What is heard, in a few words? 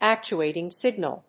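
"actuating signal", English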